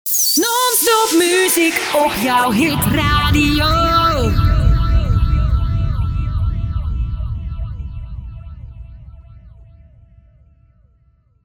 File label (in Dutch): Non Stop muziek op jouw hitradio, met SFX